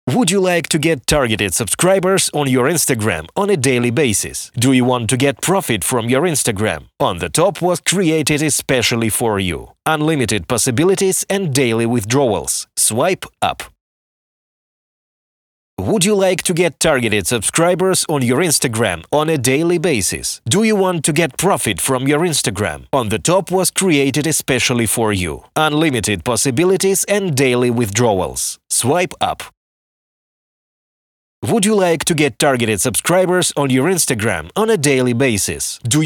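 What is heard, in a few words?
language English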